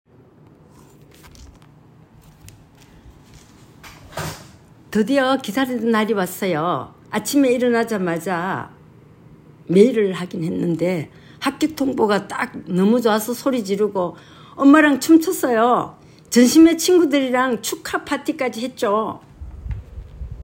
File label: Old Female Happy, korean voice emotion